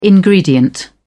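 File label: "ingredient", British English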